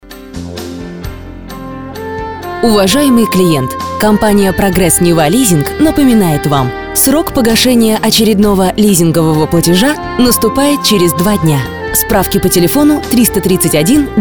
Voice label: IVR